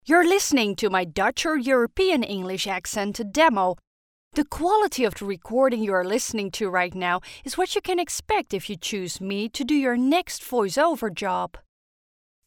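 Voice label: Dutch, female; dutch voice over talent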